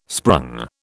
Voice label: "sprung", British

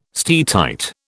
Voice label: "steatite", British

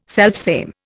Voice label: "selfsame", Indian

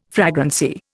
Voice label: "fragrancy", Indian

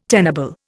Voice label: "tenable", Indian

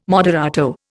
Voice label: "moderato", Indian

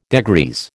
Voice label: "degrease", American

